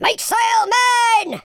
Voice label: go away, Pirate